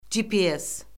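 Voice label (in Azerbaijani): "gps", Azerbaijani; Orfoqrafiya Lüğəti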